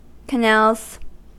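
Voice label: "canals" English, American accent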